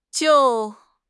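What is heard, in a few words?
"jiu4", Mandarin Chinese; HSK2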